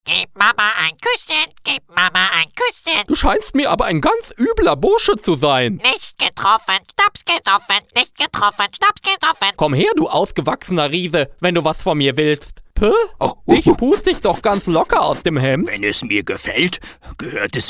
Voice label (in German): Trickstimmen